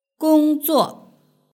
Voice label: "gongzuo", Chinese

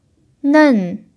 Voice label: "nen4", chinese pinyin

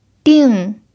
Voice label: "ding4", chinese pinyin

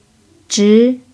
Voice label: "zhi2", Chinese pinyin

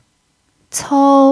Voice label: "cou1", Chinese pinyin

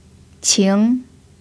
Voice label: "qing2", pinyin

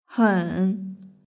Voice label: "hen", Chinese; hsk2

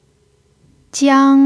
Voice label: "jiang1", chinese pinyin

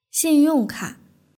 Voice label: "xinyongka", Chinese; hsk4

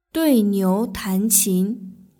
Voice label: "duiniutanqin", Chinese

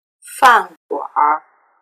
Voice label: "fanguanr", Chinese; erhua

